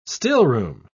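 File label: "stillroom", British English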